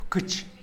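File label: "pkïtx", Tlacochahuaya Zapotec; mockingbird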